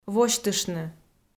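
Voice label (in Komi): "восьтыштны", Komi, female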